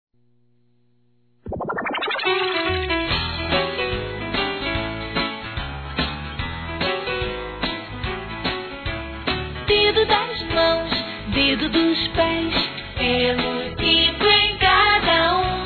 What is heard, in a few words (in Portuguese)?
Aniversário Afilhado Feminino; Aniversário Infantil; Telemensagens